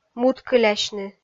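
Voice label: "муткылясьны", Komi, female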